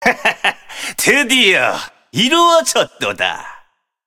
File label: cinematic voice; lineage2